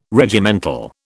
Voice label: "Regimental", British